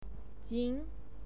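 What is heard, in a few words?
"jin", Mandarin Chinese pinyin, second tone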